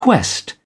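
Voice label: "quest", British English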